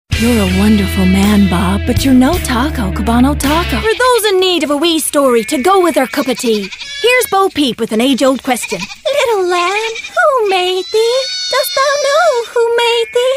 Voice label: Character reel

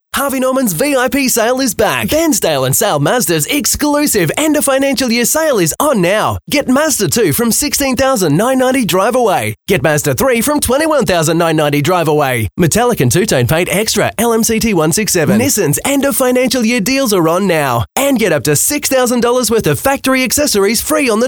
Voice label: HARD SELL

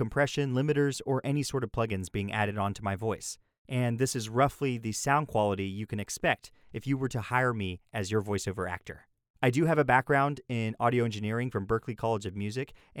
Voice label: Home Studio Test

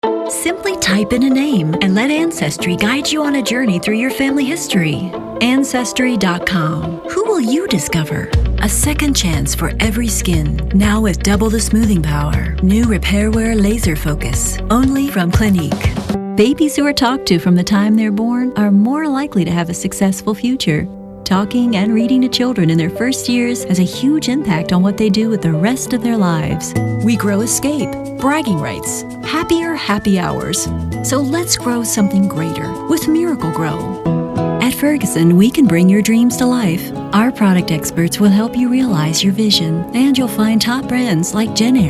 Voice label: Speaks Commercial

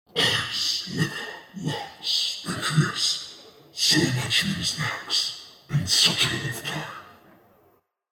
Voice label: scary voice

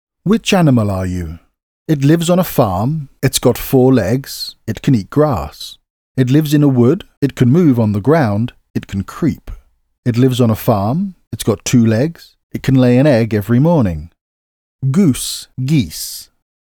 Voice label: ESL

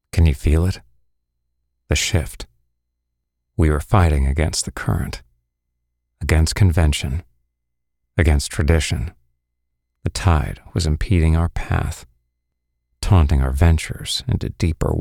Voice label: CALM INTENSE INTERNAL BUSINESS VIDEO

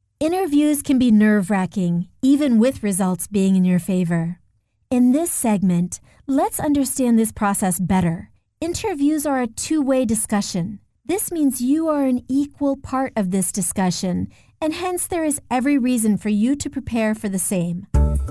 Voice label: e-learning

